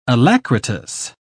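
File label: "alacritous", British English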